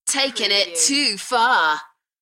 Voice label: UK female; beanstalk-audio